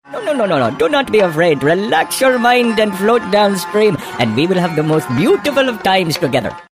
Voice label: EAST INDIAN accent voiceover